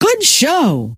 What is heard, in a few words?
good show, scientist female